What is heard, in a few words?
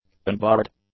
"unbarred", English; adjectives